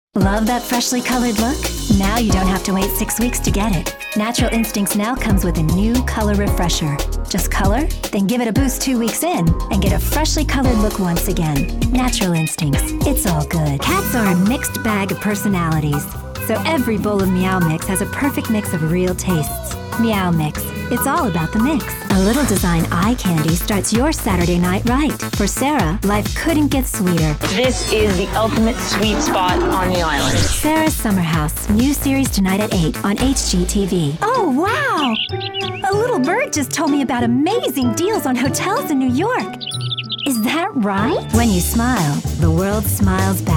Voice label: commercial